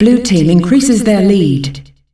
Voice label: "Blue Team increases their lead", announcer female; Unreal Tournament; ut2004